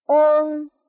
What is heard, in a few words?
"ong", pinyin